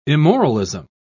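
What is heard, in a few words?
"immoralism", British English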